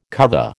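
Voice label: "cuvée", American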